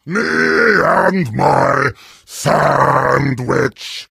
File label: heavy sandwich taunt, vo